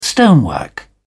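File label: "stonework", English, British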